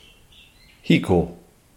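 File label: "hiko", Māori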